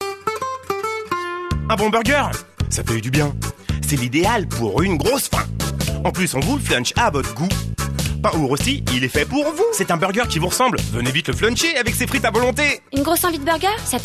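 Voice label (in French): spot, spots radio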